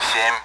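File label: Speech, Russian, AWACS; Digits; DCS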